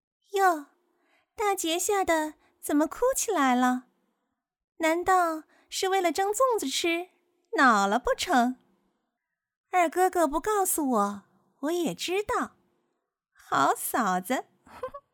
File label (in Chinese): 角色音; 黛玉